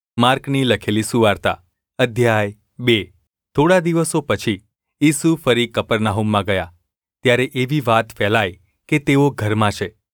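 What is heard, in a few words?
gu ulb mrk, Gujarati; mrk 2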